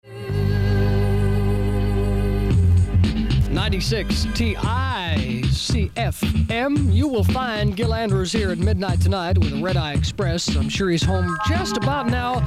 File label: air check; wtic